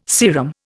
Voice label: "serum", Indian